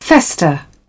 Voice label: "fester", British; ɚ - əɹ; English

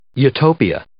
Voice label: "utopia", English

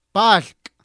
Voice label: "paalhka", Totonaco; comal

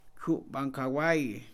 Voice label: "yegua", Mam; INALI

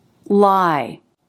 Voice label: "lie", English

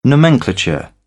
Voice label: "nomenclature", British; ɚ - əɹ; English

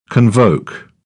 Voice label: "convoke", British; oʊ - əʊ; English

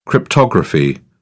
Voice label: "cryptography", British; English

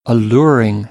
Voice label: "alluring", American; AmericanBritish

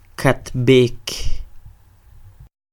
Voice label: "Katb'ek", Quiché; Vas (vos); You go; INALI; UTexas; CHQETA

